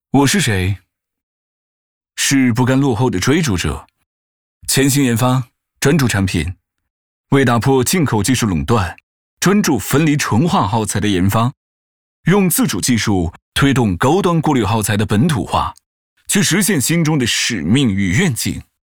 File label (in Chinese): 男 素人自白 人物自白